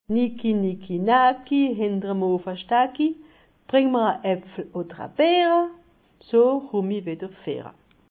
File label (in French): dicton sundgau